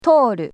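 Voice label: "tooru", Japanese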